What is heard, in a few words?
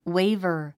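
"waver", English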